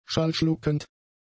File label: "schallschluckend", german; adjectives